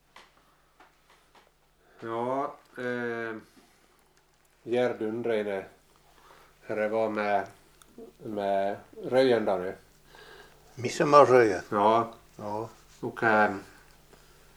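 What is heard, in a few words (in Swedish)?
Boda Dalarna, dialekter; Folkminnen; Svenska sagor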